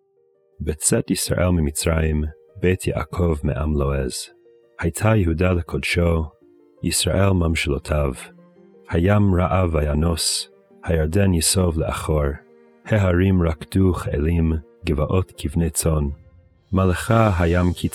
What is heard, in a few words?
poetry and prayer psalm hebrew